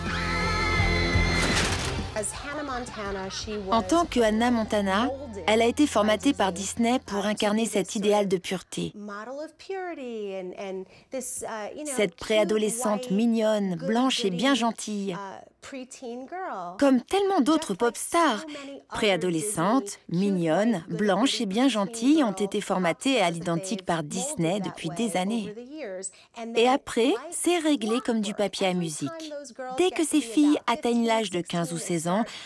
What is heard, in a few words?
Doc Voice Over; Girls; Arte